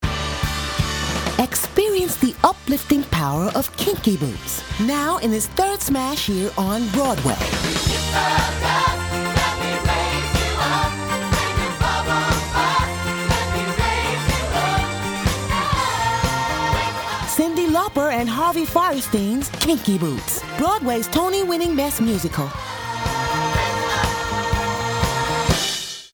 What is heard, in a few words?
Voice Over; Kinky Boots